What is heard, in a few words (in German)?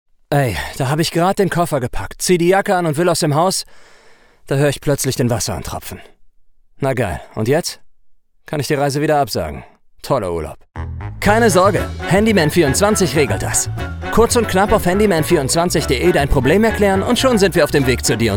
gespielt lustig